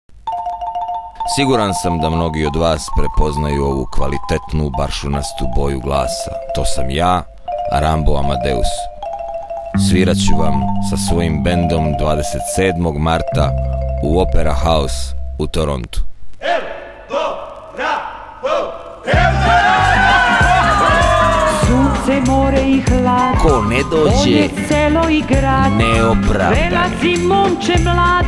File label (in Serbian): reklama